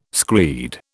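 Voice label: "screed", British English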